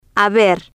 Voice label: "haber" Spanish

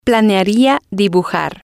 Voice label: planearía dibujar, Spanish

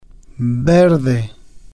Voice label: "verde", spanish

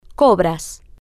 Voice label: "cobras", spanish

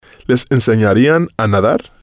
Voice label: les enseñarían a nadar, Spanish

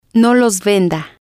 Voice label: no los venda, Spanish

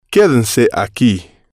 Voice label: quédense aquí, Spanish

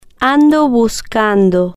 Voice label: "ando buscando", Spanish

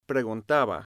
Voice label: "Preguntaba", spanish

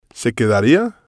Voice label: se quedaría, Spanish

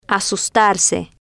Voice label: "asustarse" Spanish

